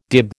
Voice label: "dib", American